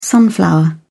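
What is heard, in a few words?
"sunflower", English, uk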